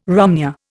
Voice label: "romneya", Indian